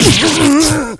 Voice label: shock

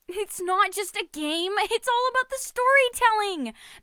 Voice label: voice prologue

